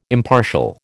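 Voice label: "impartial", American English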